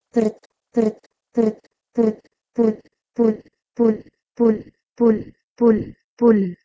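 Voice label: Proto-Indo-European "prt" to Urdu "pul"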